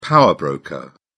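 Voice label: "power broker", English, British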